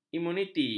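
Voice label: "imuniti", Malay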